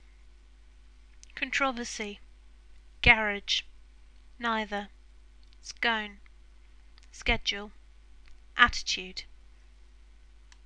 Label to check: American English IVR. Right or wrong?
Wrong